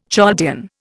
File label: "Chaldean", Indian